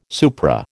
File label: "supra", American